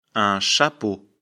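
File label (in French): "chapeau", French; afrikalan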